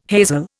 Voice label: "hazel", Indian